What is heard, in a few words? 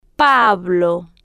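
"pablo", spanish, slow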